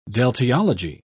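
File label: "deltiology", British English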